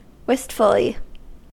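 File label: "wistfully", American English; pronunciations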